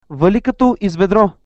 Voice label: rain, bulgarian

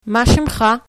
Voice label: name, hebrew

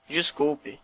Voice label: sorry, portuguese br

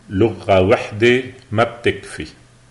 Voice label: one language lebanese

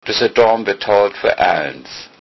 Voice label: pay, low saxon

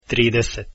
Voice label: 30 croatian

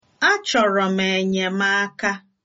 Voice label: help, igbo

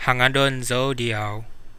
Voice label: "I miss you", Coastal Kadazan

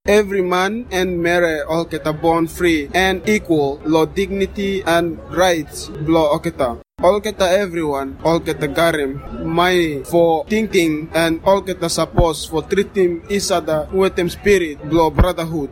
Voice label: Pijin

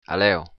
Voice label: goodbye, occitan

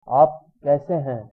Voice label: how are you, hindi; आप कैसे हैं?; āp kaise haiṅ?

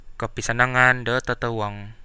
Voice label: goodnight, Central Dusun

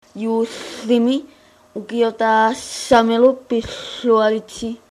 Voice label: christmas, greenlandic

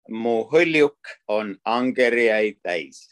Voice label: hovercraft, estonian; Mu hõljuk on angerjaid täis

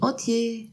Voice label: goodbye, alsatian

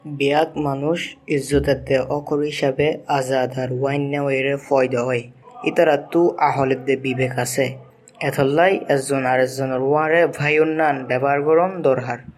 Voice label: chittagonian

